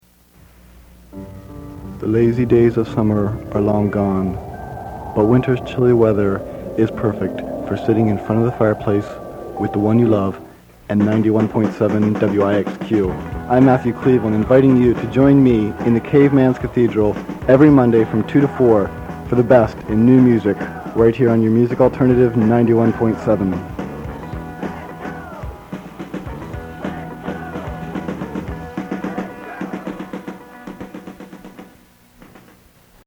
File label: Show Promo; Winter; Caveman's Cathedral